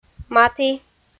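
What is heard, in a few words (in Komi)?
"маті", Komi-Zyrian, female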